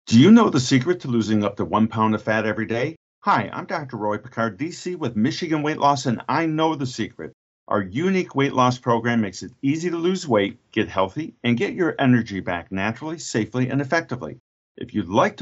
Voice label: Radio Testimonial